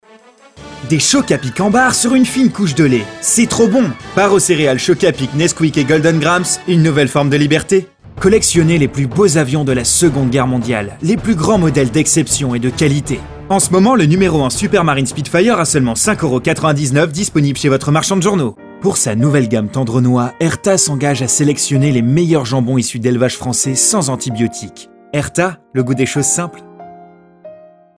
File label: French